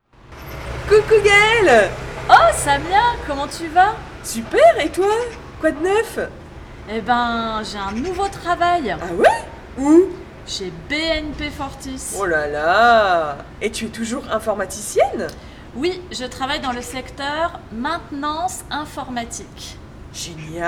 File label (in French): Dialogue locutrices natives